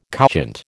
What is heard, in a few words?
"couchant", American